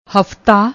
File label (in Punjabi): "hphta", Punjabi; ਹਫ਼ਤਾ